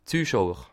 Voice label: "zuschauer", alsace

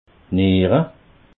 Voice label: "niere", alsace

